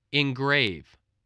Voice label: "engrave", spelling